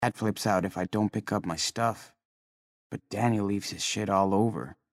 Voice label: thinking voice; Life is Strange 2; gamesoundopedia

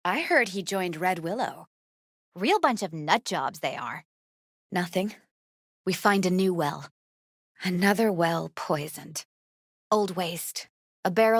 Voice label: gang voice sounds; Cyberpunk 2077; aldecaldos

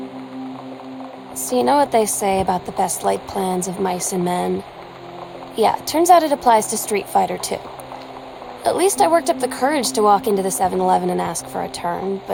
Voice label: voiceover

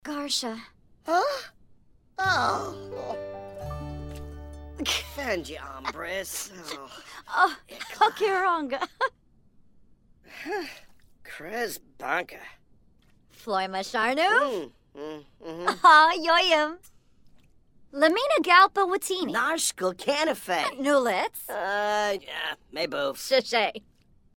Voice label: simlish; sims 4

